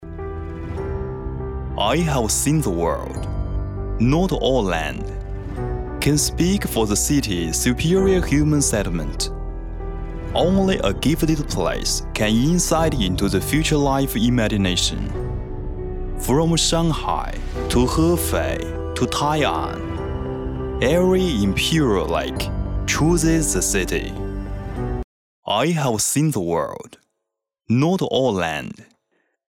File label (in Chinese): 男英 广告 地产; 让环山路重识世界豪宅